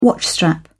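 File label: "watchstrap", British English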